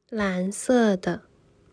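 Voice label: "蓝色的", Chinese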